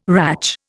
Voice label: "ratch", Indian